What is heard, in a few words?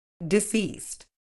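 "deceased", British English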